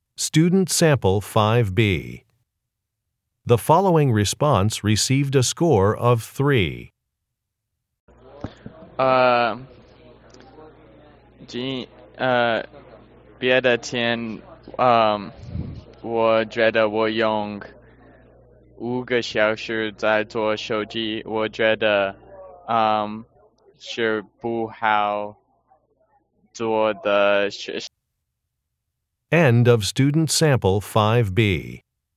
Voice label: chinese conversation; ap chinese language and culture